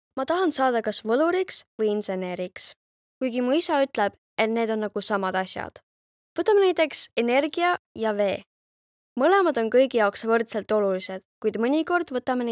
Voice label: Estonian Kid Voice Actor; Filter Powering Industries; lapshaaled